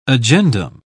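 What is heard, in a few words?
"agendum", British English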